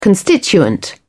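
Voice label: "constituent", English, British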